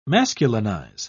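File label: "masculinize", British English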